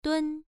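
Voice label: "dun" Mandarin, first tone, pronunciation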